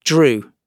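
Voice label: "drew", British English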